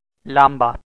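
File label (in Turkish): "lamba", Turkish, male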